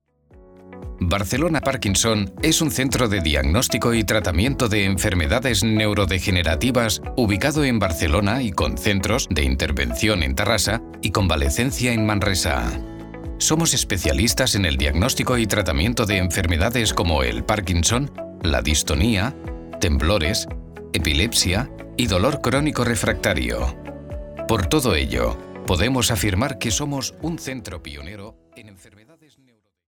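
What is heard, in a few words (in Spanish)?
corporativo España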